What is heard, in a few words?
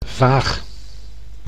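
"vaag", Dutch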